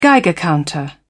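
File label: "geiger counter", British English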